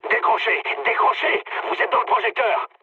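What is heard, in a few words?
searchlite, mood high, French; War Thunder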